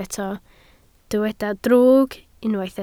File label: "drwg", Welsh